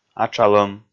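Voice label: "атшалӧм" Komi, male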